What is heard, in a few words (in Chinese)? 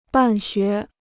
"办学", Chinese